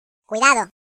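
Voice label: Watch it, British; hedgewars; Default es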